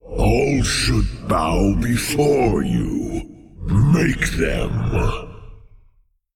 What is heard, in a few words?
Male Old God Bark; YShaarj